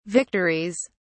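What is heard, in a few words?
"victories", British English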